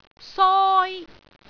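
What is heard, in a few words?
"soi1", Cantonese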